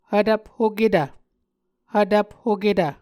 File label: "hvtvphoketv", Muscogee